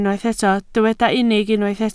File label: "unig", Welsh